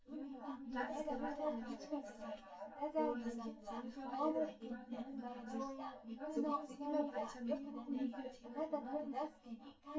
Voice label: female synth conv sim; SiSEC10; underdetermined